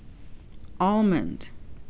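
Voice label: "almond", Canadian, female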